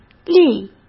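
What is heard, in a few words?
"力", Mandarin Chinese; chinese flashcards; hsk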